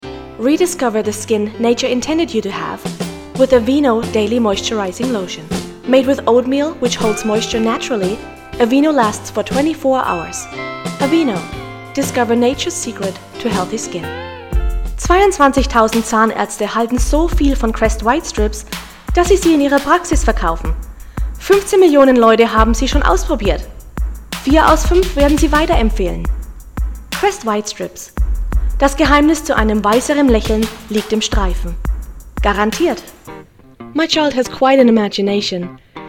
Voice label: voiceover